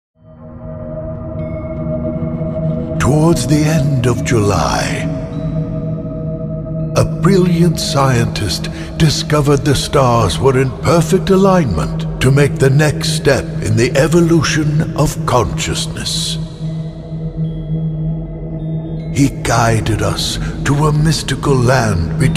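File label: Male 45 55 years Low, English UK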